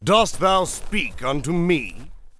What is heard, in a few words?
Knight Angry; warcraft3